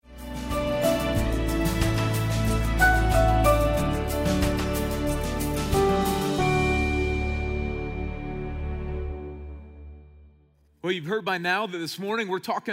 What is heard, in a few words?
Sermon English